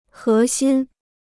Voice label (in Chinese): "核心", Chinese